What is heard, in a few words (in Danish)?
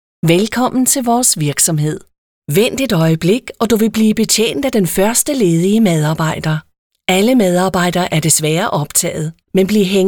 DK FORMEL KVINDE; Stemmebasen